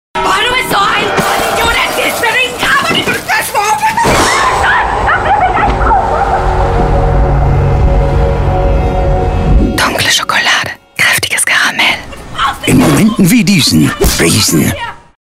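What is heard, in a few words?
German TVC; Storck Riesen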